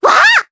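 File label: voice Skill, voices heroes, Japanese; Luna; kingsraid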